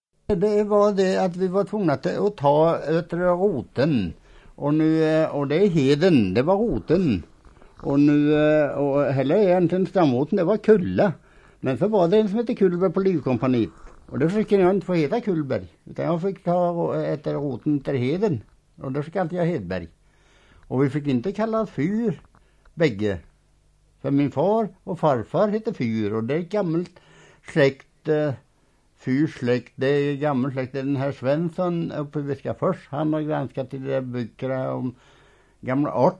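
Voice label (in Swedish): Torestorp Västergötland, dialekter; Svenska sagor; Folkminnen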